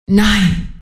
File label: end 1 sec, timeleft en unreal female; cstrike